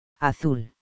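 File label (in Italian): "azzurro", italiano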